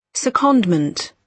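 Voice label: "secondment", British English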